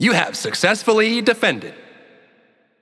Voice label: Announcer Success Defend Push; Paladins

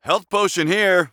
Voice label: Warrior Health Potion; Realm Royale

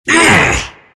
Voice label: anger; dota2; terrorblade

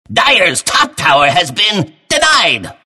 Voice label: announcer deny; clockwerk

